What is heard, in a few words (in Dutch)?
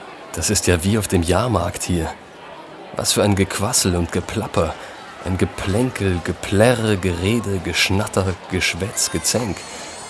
audiotour